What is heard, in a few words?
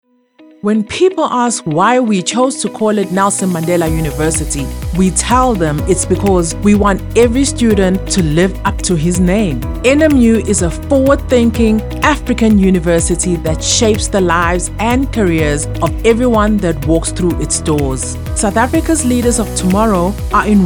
Corporate Explainer Medium Sell